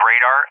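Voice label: "radar", English, USA; Target; DCS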